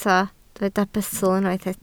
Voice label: "bustl", Welsh